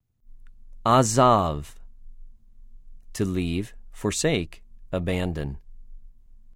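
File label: "עָזַב", Hebrew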